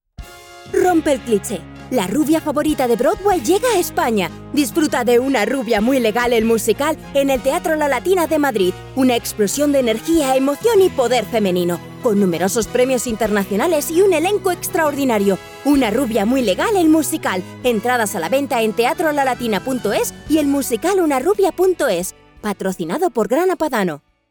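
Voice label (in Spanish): otros España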